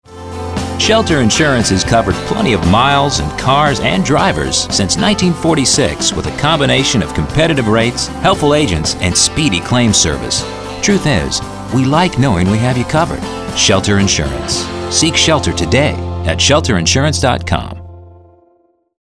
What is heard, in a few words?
friendly genuine